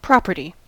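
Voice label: "property", American English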